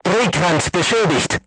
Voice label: voice message horizontal aiming drive damaged, crew tanks german